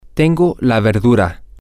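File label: I have the vegetable, spanish, male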